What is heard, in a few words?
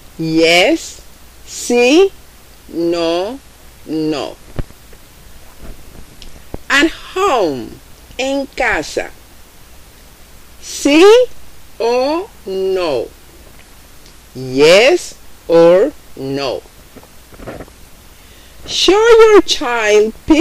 Mommy and Daddy Spanish Parent Toddler